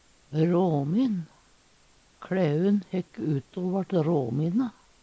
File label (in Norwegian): ramin, Numedalsmål; KLæun hekk ute o vart råmine.; Adjektiv; Veggli